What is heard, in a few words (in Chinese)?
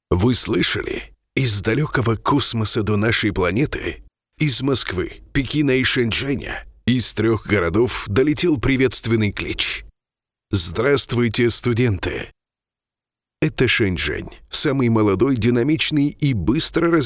学校宣传片